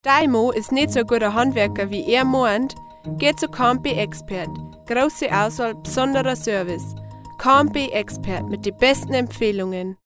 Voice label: Werbung Reminder; K+B Expert

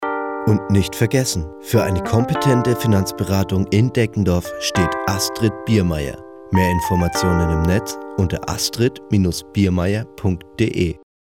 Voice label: Werbung Reminder

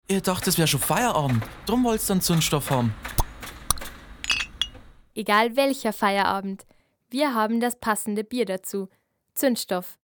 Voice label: Werbung Reminder; Irlbacher

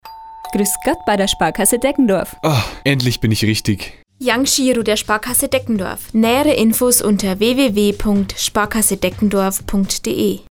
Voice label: Werbung Reminder; Sparkasse

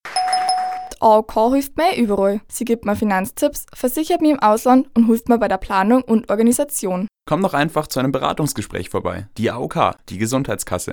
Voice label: Werbung Reminder; AOK

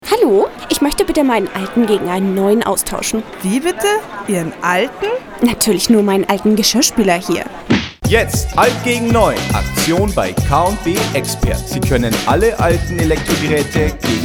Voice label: Werbung Geschirrspueler; K+B

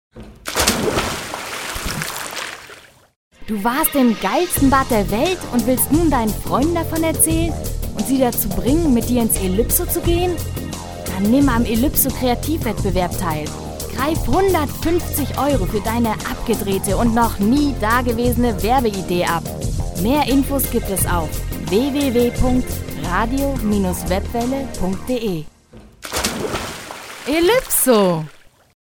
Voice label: Werbung; Elypso

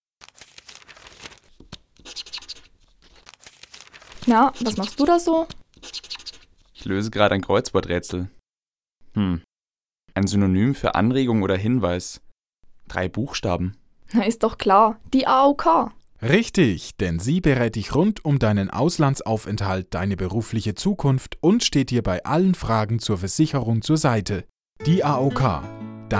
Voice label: Werbung Mit Musikbett; AOK

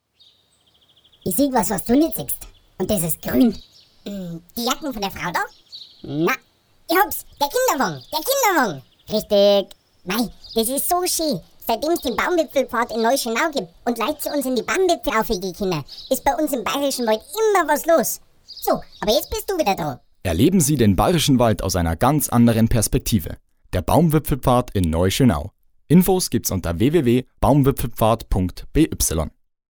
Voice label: Werbung; Erlebnis Akademie

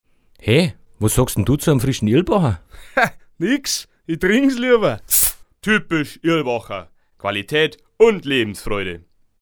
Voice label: Werbung Reminder; Irlbacher

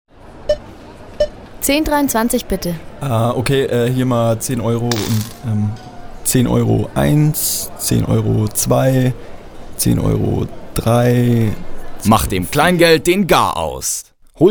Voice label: Werbung Sparkasse

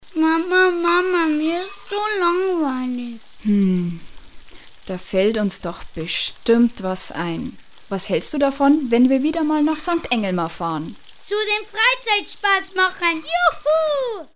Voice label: Werbung; Freizeitspassmacher St Englmar

